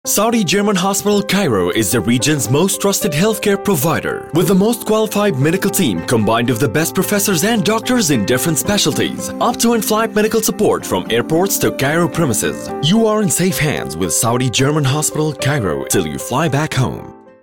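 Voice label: Arabic, accented English; GoLocalise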